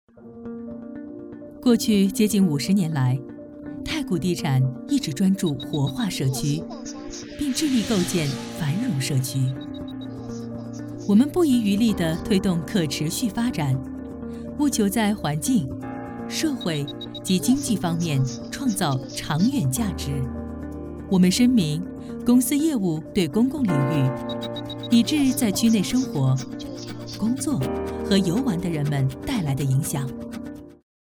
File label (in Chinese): 女 宣传片; 太古地产